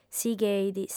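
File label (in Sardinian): "sigheit", female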